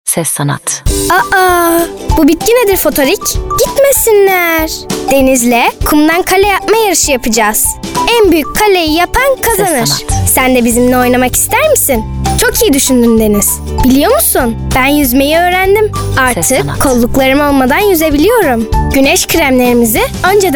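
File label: Turkish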